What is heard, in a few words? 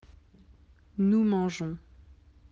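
"manger", French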